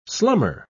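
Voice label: "slummer", English, British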